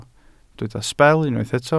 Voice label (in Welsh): "sbel" Welsh